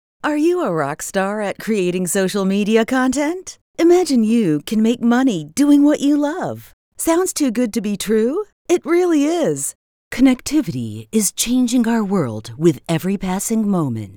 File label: Dry